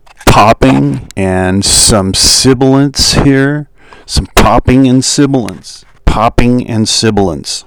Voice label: popping and sibilance